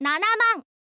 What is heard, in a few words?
"nanaman", info girl voice